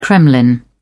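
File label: "kremlin", British English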